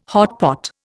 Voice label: "hotpot", Indian

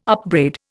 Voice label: "upbraid", Indian

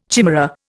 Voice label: "chimera", Indian

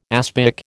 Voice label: "aspic", American

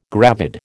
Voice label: "gravid", American

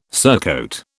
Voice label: "surcoat", British

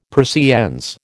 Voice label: "Persiennes", American

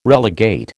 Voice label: "relegate", American

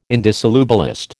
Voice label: "indissolubilist", American

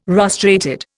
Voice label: "rostrated", Indian

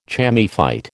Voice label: "chamaephyte", American

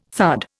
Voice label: "thud", Indian

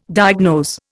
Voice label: "diagnose", Indian

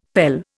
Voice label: "pele", Indian; Dictionary

